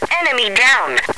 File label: enemy down, woman